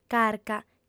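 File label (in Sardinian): "carca", female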